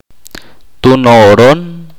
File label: "todos", chorti